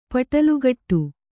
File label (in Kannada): "ಪಟಲುಗಟ್ಟು", Kannada